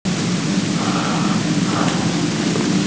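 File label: "mian4 fen3", Mandarin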